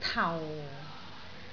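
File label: "tau4", Cantonese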